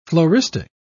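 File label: "floristic", British English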